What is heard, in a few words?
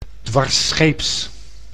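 "dwarsscheeps", Dutch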